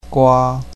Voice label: "gua1", Chinese pinyin

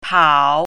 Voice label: "pao3", Chinese pinyin

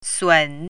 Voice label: "sun3", Chinese pinyin

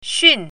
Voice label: "xun4", Chinese pinyin

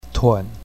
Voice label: "tun3", Chinese pinyin

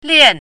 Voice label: "lian4", Chinese pinyin

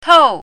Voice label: "tou4", Chinese pinyin

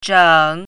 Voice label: "zheng3", Chinese pinyin

